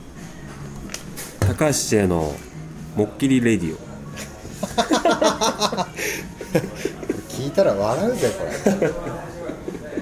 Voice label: radio